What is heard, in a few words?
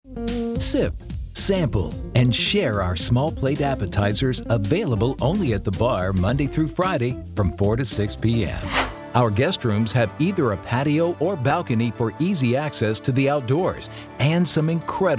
male